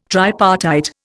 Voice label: "tripartite", Indian

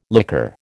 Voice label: "liqueur", American

